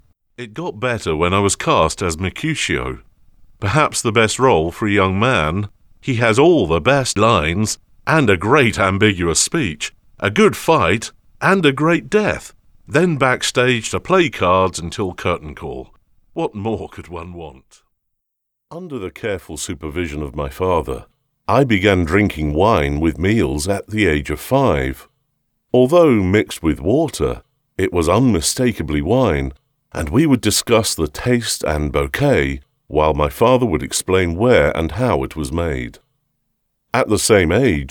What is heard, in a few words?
audiobooks